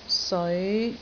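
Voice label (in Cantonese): "seoi5", Cantonese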